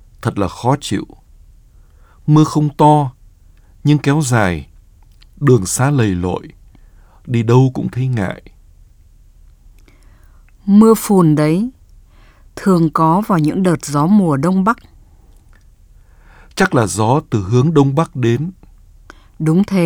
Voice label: Dialog